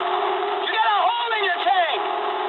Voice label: eng tank damaged mood med, speech eng